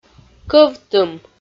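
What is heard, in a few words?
"кӧвтым", Komi-Zyrian, female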